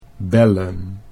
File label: "bellen", Dutch